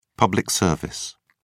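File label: "public service", British English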